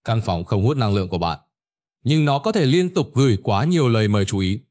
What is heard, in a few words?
narration